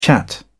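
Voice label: "chat", British English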